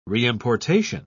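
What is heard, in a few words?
"reimportation", British English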